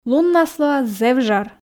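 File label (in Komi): луннас лоас зэв жар, Komi, female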